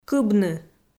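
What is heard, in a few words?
"кыбны" Komi, female; kpv audio dict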